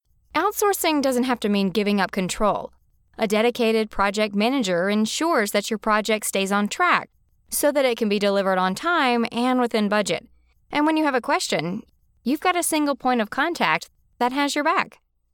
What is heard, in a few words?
Project Manager Voice